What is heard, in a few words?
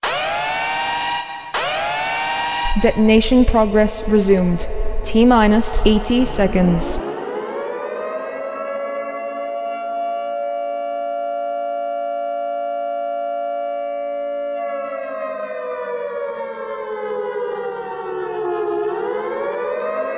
Female